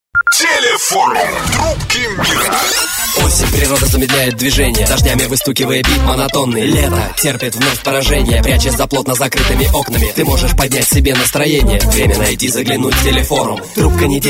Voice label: rap